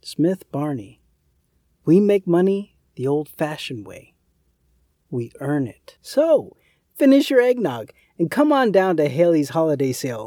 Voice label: Commercial Friendly, Warm, Bloke Next Door; Spooky, Creepy, Silly; Cheerful